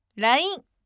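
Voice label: "line", firm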